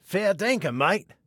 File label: You Rock, Male